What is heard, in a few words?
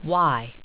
"y", English